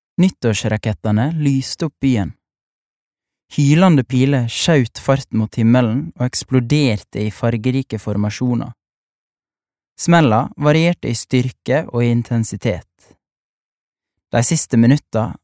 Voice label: no audiobook, norsk, mann